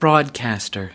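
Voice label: "broadcaster", US English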